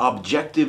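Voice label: "objective", US English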